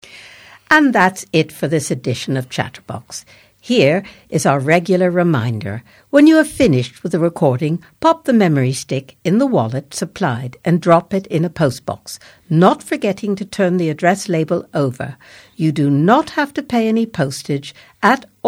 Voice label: Closing Announcement